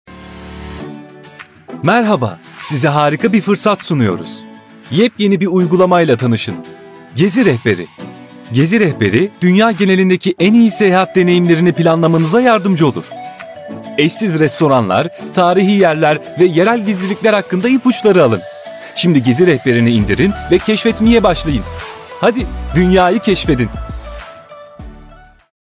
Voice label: gezi rehberi

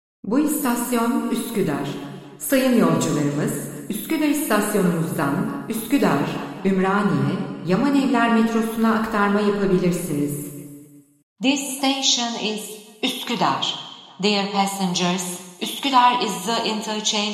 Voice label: marmaray anons, Türkçe, Kadın Genç Yetişkin

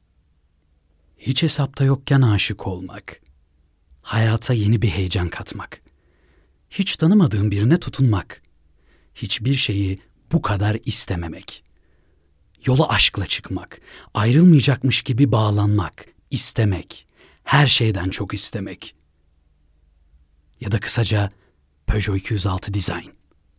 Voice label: seslendirme reklam

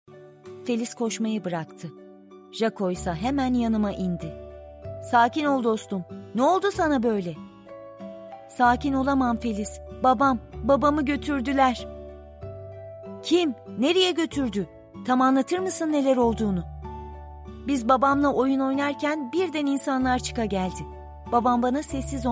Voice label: cocuk kitabi ornek; pongo

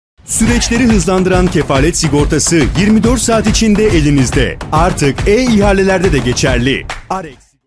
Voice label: reklam filmi; arex sigortanin ilk reklam filmi kefalet sigortasi

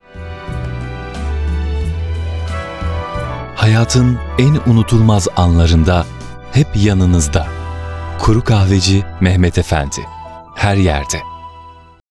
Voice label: kuru kahveci sadece ses, Türkçe, Erkek

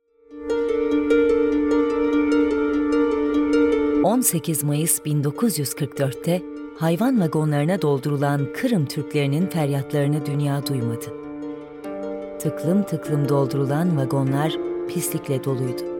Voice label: belgesel; kirim

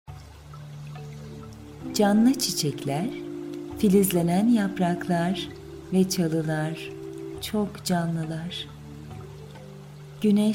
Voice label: meditasyon

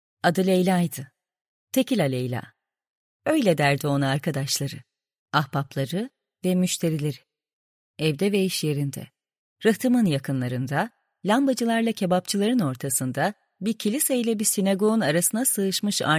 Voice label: kitap, Türkçe, Kadın Genç

